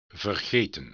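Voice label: "vergeten", Dutch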